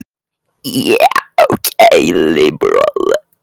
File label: voice message